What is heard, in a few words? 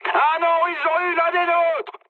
aircraft destroyed mood high, speech fr; War Thunder